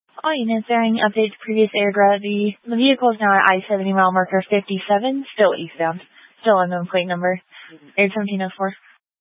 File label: Sheriff Dispatch, scanner audio